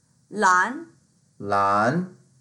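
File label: "lan2", Mandarin Chinese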